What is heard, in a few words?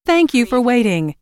US female phone prompt Thank you for waiting; lynne publishing